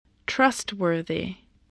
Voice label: "trustworthy", voice